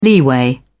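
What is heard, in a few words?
"leeway", British English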